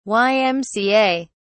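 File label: "ymca", British English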